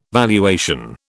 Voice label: "valuation", British